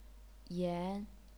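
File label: "yan", chinese pinyin, second tone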